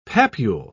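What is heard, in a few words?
"papule", British English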